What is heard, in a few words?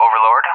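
"Overlord", English, AWACS Callsign; DCS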